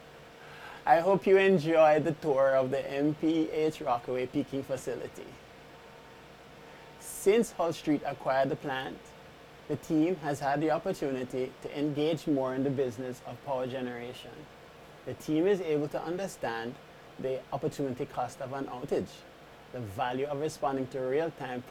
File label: interview clean, deresonsance, stutter